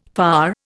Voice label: "far", Indian

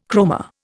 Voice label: "chroma", Indian

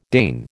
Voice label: "deign", American

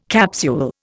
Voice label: "capsule", Indian

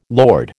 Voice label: "lord", American

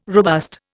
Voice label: "robust", Indian

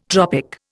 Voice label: "tropic", Indian

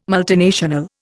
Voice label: "multinational", Indian

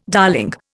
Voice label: "darling", Indian

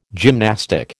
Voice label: "gymnastic", American

